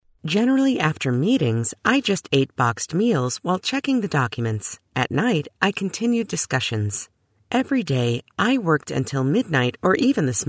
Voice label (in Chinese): 外籍女英 外语 美式英语 稳重